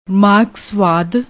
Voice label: "maarkasvaad", Punjabi; ਮਾਰਕਸਵਾਦ